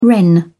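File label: "wren", English, British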